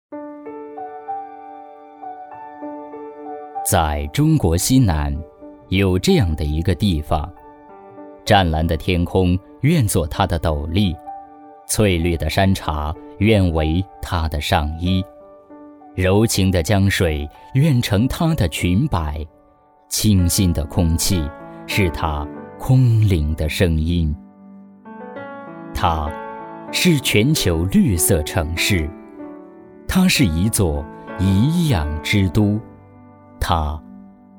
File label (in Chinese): 男, 专题, 城市宣传片; 都匀